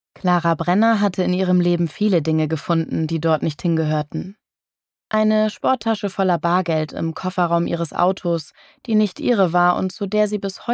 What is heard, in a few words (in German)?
Hoerprobe, sprecher